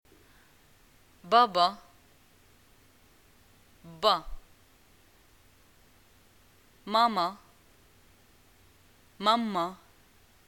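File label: family, Moroccan arabic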